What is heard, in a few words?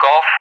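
"Golf", English; Alphabet; DCS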